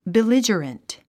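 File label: "belligerent", English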